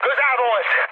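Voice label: Hungarian bomb failure mood high, speech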